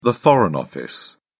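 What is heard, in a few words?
"foreign office", British English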